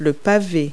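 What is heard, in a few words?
"cobblestone", English word; Multi-Lingual Talking Picture Dictionary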